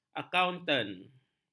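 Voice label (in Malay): "akauntan", Malay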